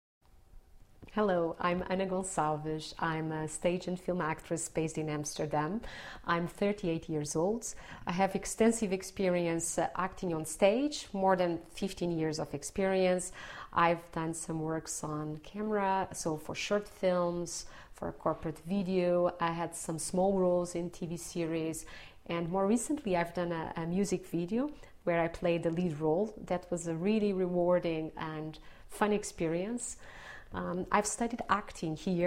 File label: English about me